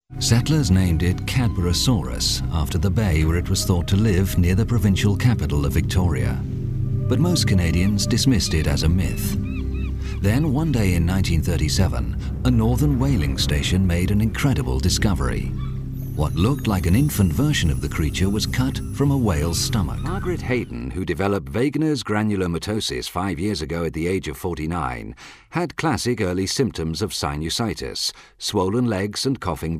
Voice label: corporate